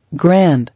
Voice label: "Grand", English